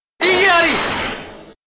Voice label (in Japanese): japanese igiari; edgeworth